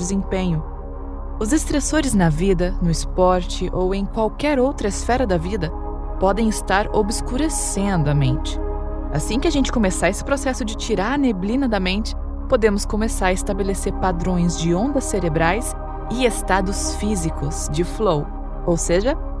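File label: Meditation Tapping Athletes Intro Conversational Explaining, female Brazilian Portuguese voiceover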